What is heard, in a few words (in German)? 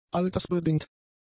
"altersbedingt", German; adjectives